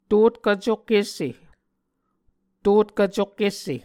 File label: "totkv cokhesse", Muscogee